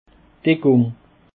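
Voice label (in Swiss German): "deckung", Alsatian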